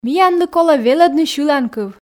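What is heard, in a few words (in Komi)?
миянлы колӧ велӧдны сьыланкыв, Komi, female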